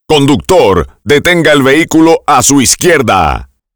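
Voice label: Pull over left, Spanish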